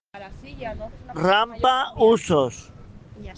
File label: Voice message